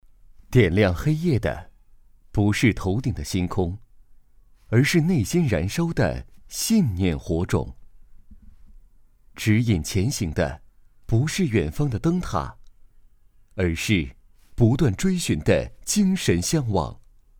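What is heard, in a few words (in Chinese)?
宣传片大气深情; 宪法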